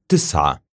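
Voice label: numbers "tis3a", Arabic; raqm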